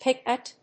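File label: "pick at", English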